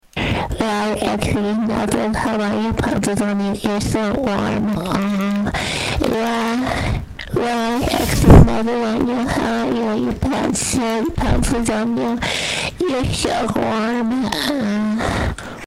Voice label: wtf voice

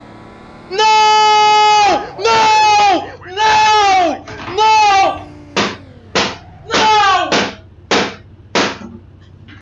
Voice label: rage

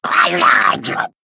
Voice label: male murloc attack

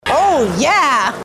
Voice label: "oh yeah" female

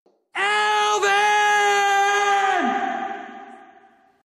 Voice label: yells alviiiiiiin; tik tok; alvin and the chipmunks; dave; david serville

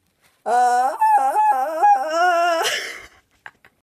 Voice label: puberty voice

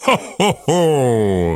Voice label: ho ho ho voicemod

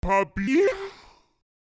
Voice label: papi autotune

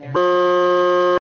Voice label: bruh sound effect

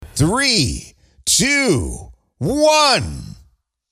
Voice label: epic 3 2 1 countdown

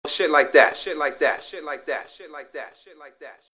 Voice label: battle rap

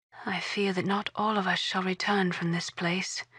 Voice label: voiceover; planescape torment

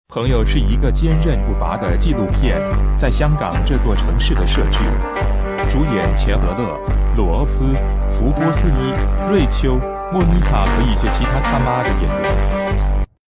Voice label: Chinese Language; Friends Ad

